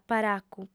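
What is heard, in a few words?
"paracu" Sardinian, female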